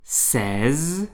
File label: number 16, French